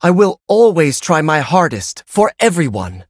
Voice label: loading, en; cookie run